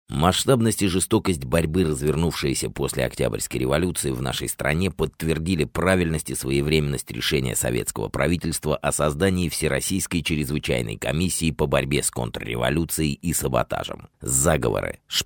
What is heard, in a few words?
Rus